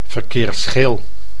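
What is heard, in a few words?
"verkeersgeel", Dutch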